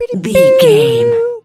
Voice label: voice fun character cute cartoon